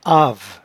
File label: "ave", patois jura